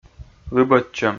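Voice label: "лыбӧдчӧм", Komi, male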